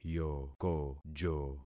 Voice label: "yokojo", concat syllables; Affixing experiment